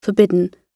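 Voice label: "forbidden", British English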